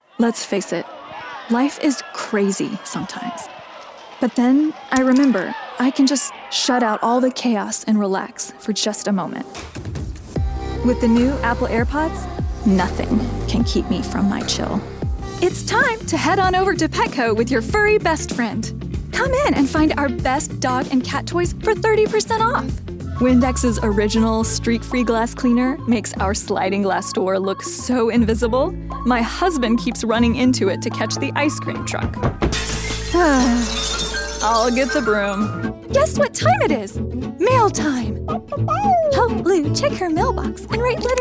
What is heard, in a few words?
Commercial Demo